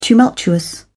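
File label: "tumultuous", British English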